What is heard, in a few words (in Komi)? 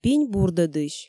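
"пинь бурдӧдысь", Komi, female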